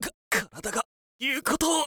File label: wizard faint